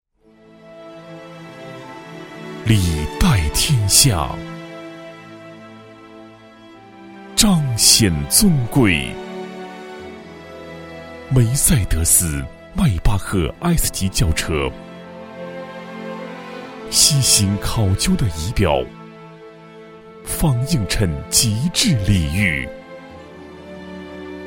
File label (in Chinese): 男 汽车广告, 国语男声配音 磁性; 梅赛德斯